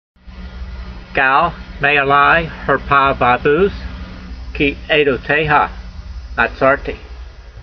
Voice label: voice, hebrew language